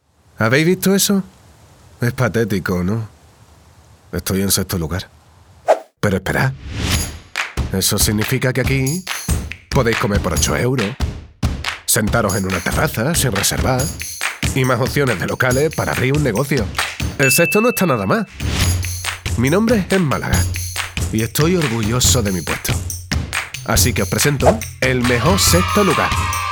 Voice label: spanish others; Spain